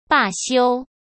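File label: "罢休", Mandarin Chinese; hsk; chinese-flashcards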